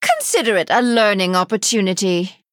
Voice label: Female High Elf Emote Combat Loss

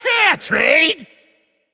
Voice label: Male Demon Attack

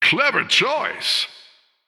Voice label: Male Human Recruit Medium Minion

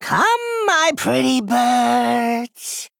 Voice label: male goblin, Play

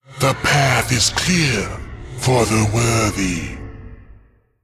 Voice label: Male Elemental

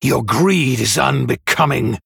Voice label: Male Human Idle; Edwin; Scabbs; Tavish

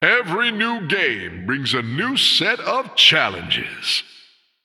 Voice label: Male Human New Game

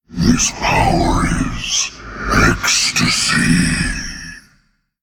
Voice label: Male Elemental Play

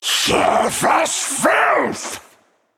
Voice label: Male Naga Play

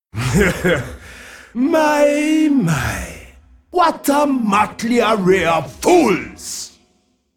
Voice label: Male Dragon

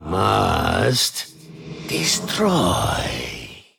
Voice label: Male Ethereal Emote Thinking

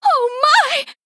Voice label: Female Human Attack

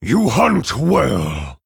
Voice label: Male Orc Ogre Well Played

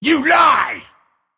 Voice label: Male Stoneborn Attack

